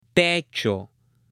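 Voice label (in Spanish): "techo", Spanish, male; OBJETOS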